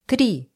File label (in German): russische zahl 20; russische zahl 3; Zahlen auf Russisch